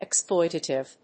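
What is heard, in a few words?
"exploitative", English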